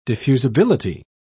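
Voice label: "diffusibility", en, British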